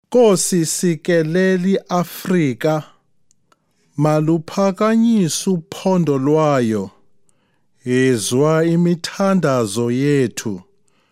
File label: nguni lyrics pronunciation